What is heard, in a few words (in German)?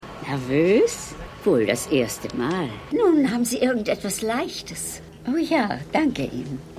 Aeltere Dame; AirPlane